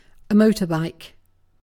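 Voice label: "motorbike", British English; pronunciations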